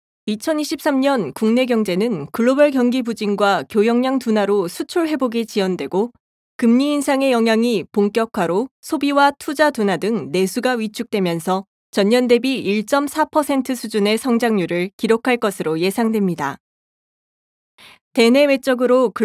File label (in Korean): 거시경제 아나운서홍보톤